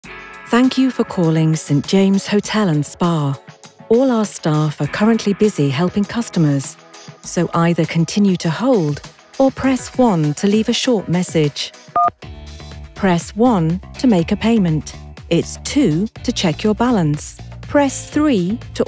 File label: Telephone IVR RP Accent English